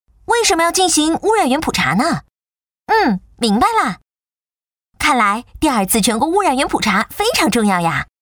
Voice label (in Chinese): 女, 污染源, 欢乐活泼; 女29-大气磁性 轻松活泼; 飞碟说